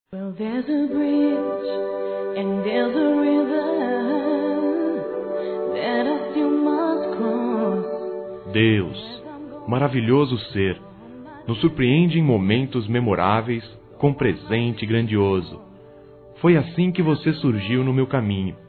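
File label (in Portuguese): agradecimento amizade, masculino; Telemensagens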